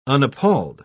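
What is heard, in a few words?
"unappalled", British English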